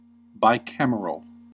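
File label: "bicameral", American English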